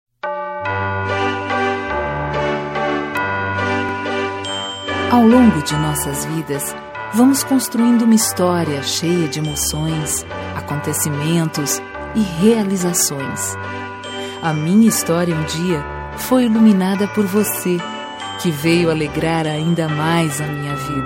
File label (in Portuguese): Feliz Natal pra Netoa, F